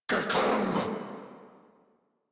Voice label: Attack Corrupted Grineer Male